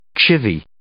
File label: "chivy", English